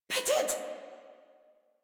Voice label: Surprised Female; Warframe; Modular Corpus Female

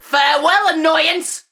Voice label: Player Spotted Ranged, Battle Barks; Tau; Tau Dax

